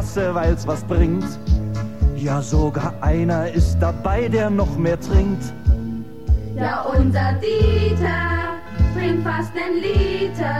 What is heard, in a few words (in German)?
werbung milch